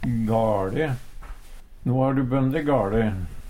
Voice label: gale, Numedalsmål; feil; No ha du bønde gaLe.

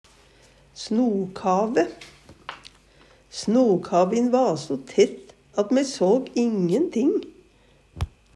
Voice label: "snokave", Numedalsmål

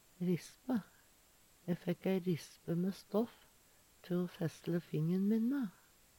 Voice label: "risspe", Numedalsmål

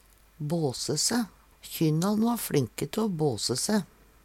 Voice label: base se, Numedalsmål; gå på bås; Kjynnan va fLinke te o båse se.

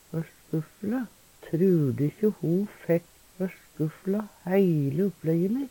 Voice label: "førskufle", Numedalsmål; Verb; Trur du`kje ho fekk førskufla heile opplejje mitt.

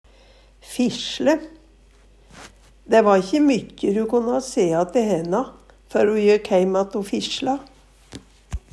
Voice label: fisle, Numedalsmål; Dæ va ikkje mykkje du konna sea te hena før ho jekk heimatt o fisla.

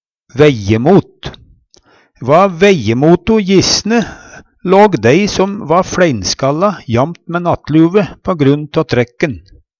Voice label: "vejjemot", Numedalsmål; Turk støvet av vejjemoto.

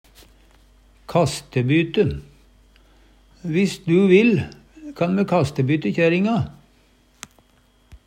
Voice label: kastebyte, Numedalsmål

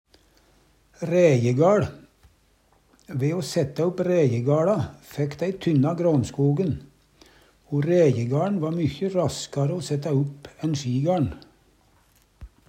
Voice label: rejegaL, Numedalsmål; ræjegaLn va mykje raskare o sætta upp enn sjigaLn.

